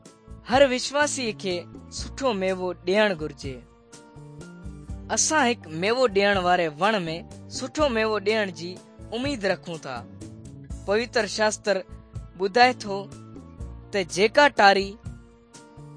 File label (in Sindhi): good news sindhi dukslinu, drama